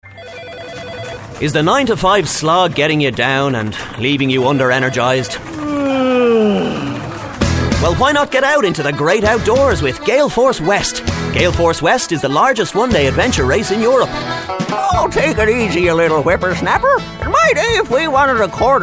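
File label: English Irish, male, commercial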